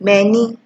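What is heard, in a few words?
"many", Nigerian Pidgin, female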